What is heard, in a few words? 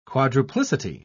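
"quadruplicity", English, British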